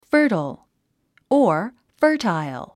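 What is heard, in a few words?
"fertile", English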